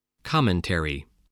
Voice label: "commentary", voice

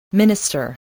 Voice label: "minister", voice, spelling

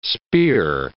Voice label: "spear", english

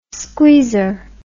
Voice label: "squeezer", English

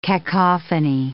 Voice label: "cacophony", English

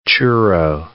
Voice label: "churro", English

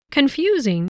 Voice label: "confusing", english

